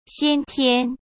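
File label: "先天", Mandarin; chinese flashcards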